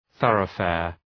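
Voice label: "thoroughfare", English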